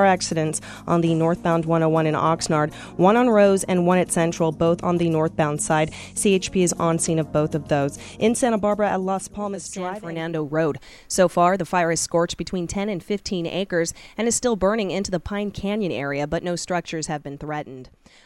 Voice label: traffic news; datebook